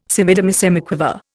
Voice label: "semidemisemiquaver", Indian